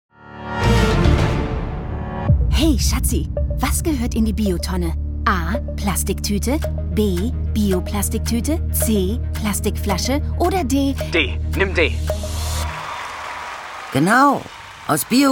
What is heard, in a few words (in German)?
Spot BioTonne; RADIOMIX